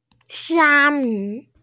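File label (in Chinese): "xia1mi5", Mandarin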